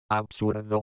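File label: "absurdo", Spanish; Fonetica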